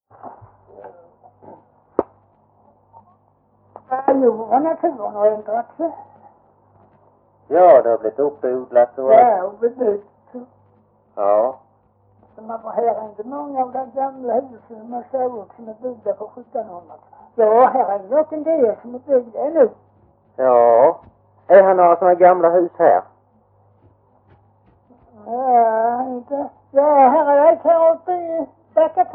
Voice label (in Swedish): Skånes Fagerhult Skåne, dialekter; Folkminnen; Svenska sagor